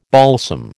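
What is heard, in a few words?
"balsam", American English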